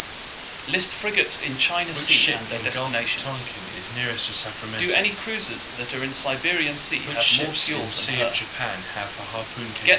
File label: mixture room A SNR10